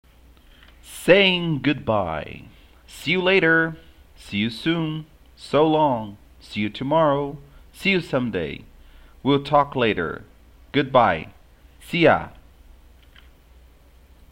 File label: Simple Conversation; english course module 01